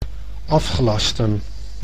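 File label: "afgelasten", Dutch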